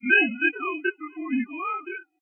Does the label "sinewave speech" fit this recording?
Yes